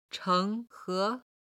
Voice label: "cheng2he2", Chinese

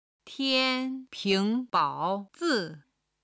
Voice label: "tian1 ping2 bao3 zi4", Chinese

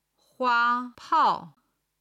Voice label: "hua1pao4", chinese

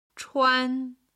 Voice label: "chuan", Chinese

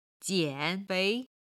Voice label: "jianfei", Chinese

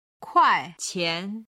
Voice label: "kuaiqian", chinese

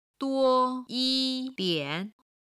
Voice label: "duo1yi1dian3", chinese

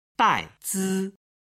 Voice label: "dai zi", Chinese

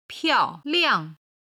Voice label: "piao4liang5", Chinese

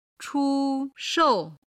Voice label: "chu shou", Chinese

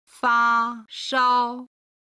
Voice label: "fa1shao1", Chinese

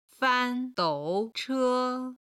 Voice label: "fan1 dou3 che1", chinese

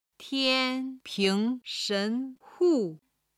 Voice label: "tian1 ping2 shen2 bao3 hu4", Chinese

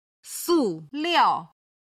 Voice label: "su liao", Chinese

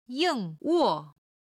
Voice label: "ying4wo4", chinese

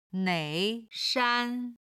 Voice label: "nei4 shan1", Chinese

